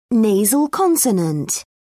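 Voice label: "nasal consonant", British English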